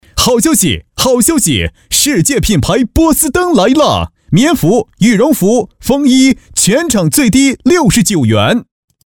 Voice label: 好消息 促销配音; 波司登

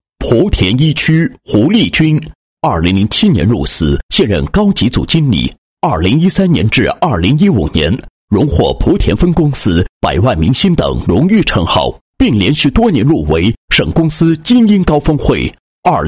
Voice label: 男 人物介绍; 精英高峰会

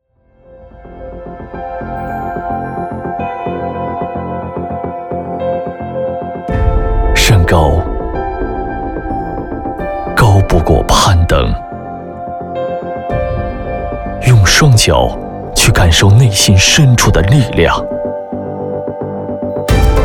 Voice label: 男 广告 温情感染; 梦想家广告

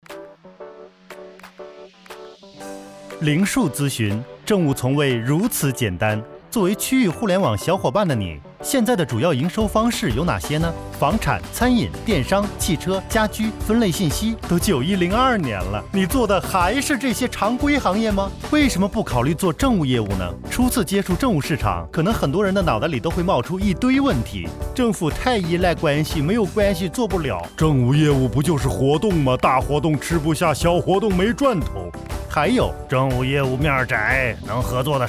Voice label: 轻快; 灵数咨询

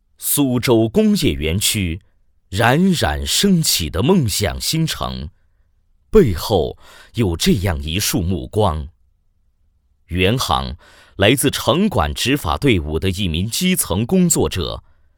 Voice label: 男 专题; 苏州工业园

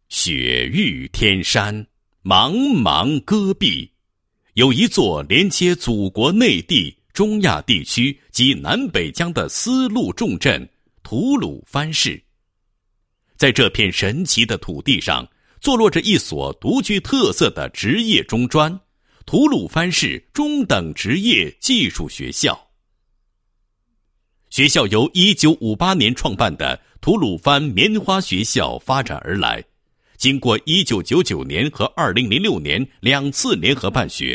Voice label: 男, 专题片; 吐鲁番市中等职业技术学校建设成果专题片

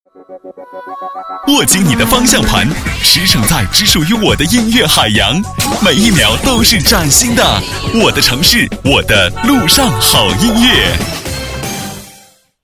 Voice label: 男 广告 路上好音乐, 国语男声配音

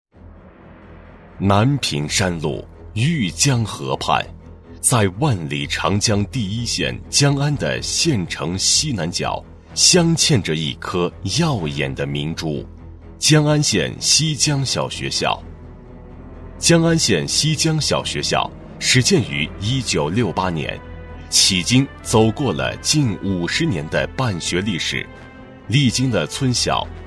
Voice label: 男 专题 学校类 小学校宣传介绍, 国语男声配音 年轻磁性; 西江小学

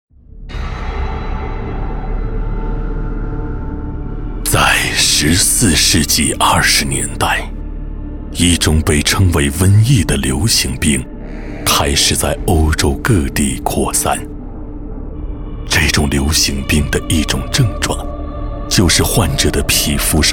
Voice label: 男60号 专题 悬疑; 预演厅试音; 国语男声配音; 交广主播

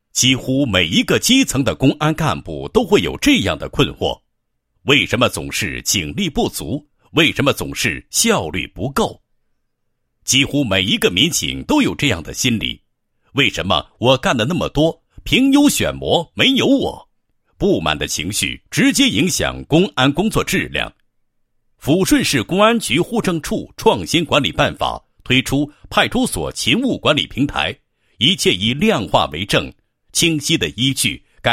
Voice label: 男 公安; 抚顺市公安局勤务管理平台

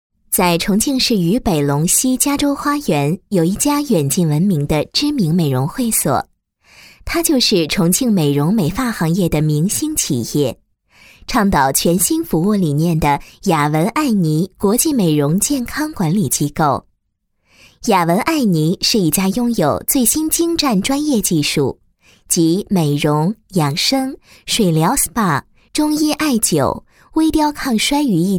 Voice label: 女19 专题 旁白 美容 保健 健康, 国语女声配音; 雅雯艾美容健康管理

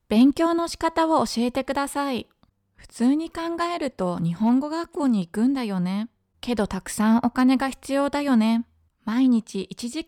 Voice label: 外籍日语女, 网页向导

